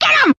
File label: "damn", expletives